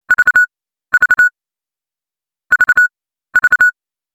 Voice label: Not kiddin Ringtone; mesaj sesleri